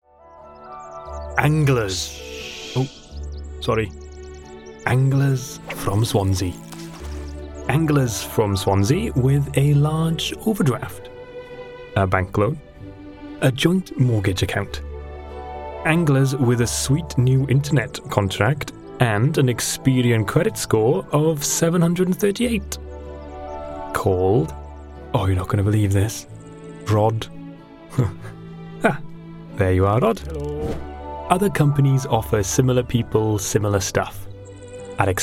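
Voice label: British English, Commercial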